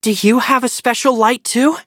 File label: levelup, en; cookie run